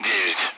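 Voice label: Speech Sound Russian Common Allied Flight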